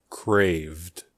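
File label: "craved", American English; pronunciations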